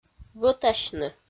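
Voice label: "вӧтасьны", Komi, female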